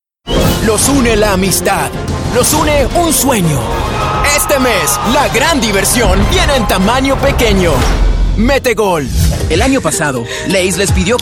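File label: Latin American Spanish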